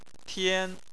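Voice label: "tian", Mandarin Chinese, first tone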